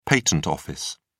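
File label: "patent office", British English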